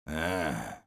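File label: negative vocalization, Spanish; Sniper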